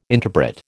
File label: "interpret", American